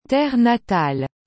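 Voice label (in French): "terre natale", French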